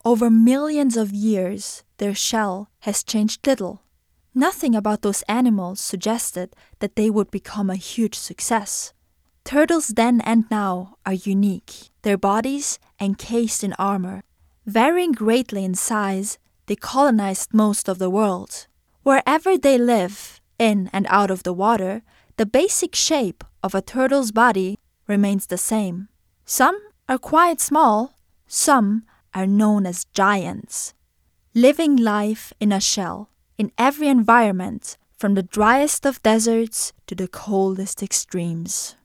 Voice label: Documentary Voicereel

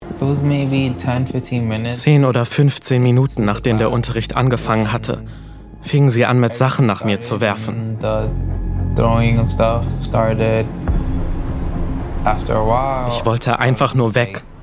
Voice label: VoiceOver

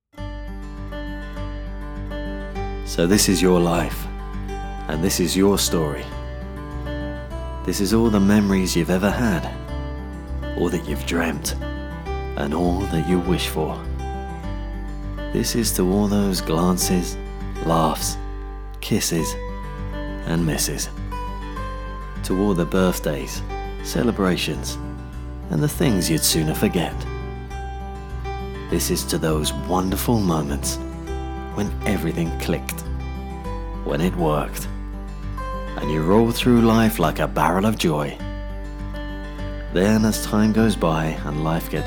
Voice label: Narrative Film Trailer; LOFT VOICES

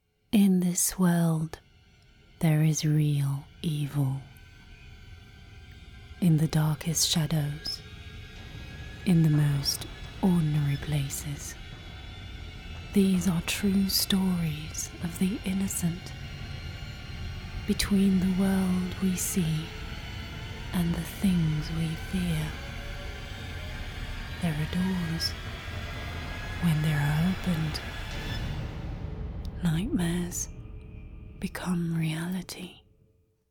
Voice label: MYSTERIOUS

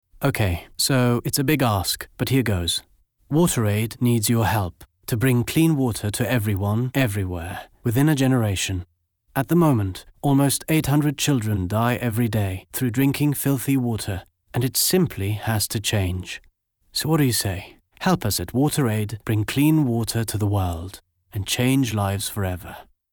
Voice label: English; Water Aid

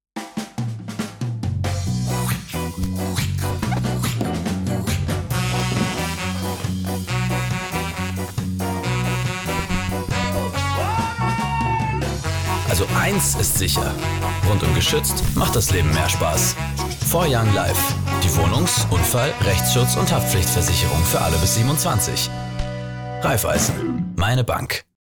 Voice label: TV Spot Audio; Raiffeisen; 4YoungLife

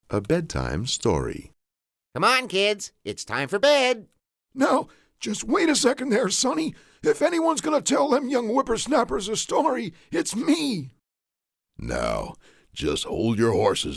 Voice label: Animation; LOFT VOICES

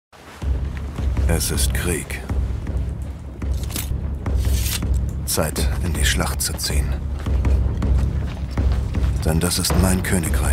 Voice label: kino spot advertisement; clash of kings

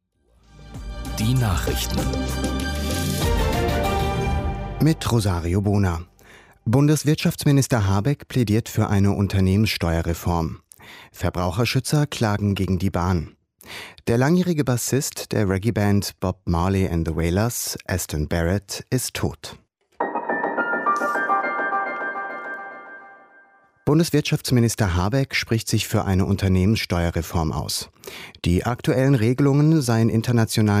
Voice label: News

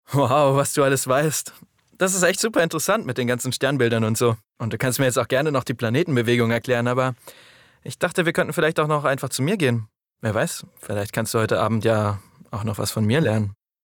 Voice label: sexy; LOFT VOICES

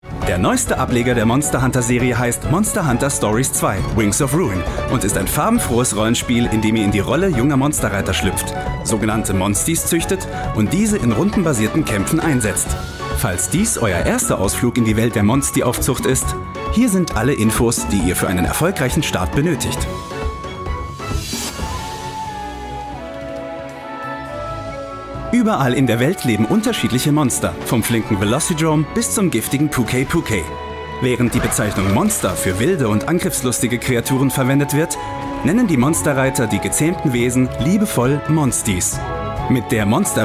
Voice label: News (Nachrichten), Presentation, Off, German; IGN - Monsterhunter; LOFT VOICES

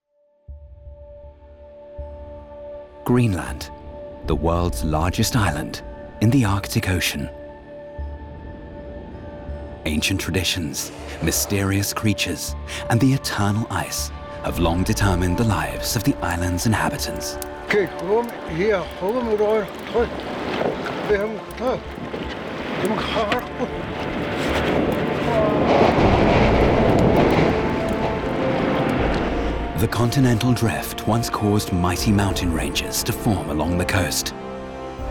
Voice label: Documentary; GREENLAND In The Glare of Ice

